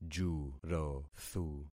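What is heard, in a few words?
"jurothu", concatenated syllables; Affixing experiment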